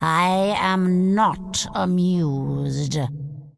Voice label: Undead Female Magic NPC Pissed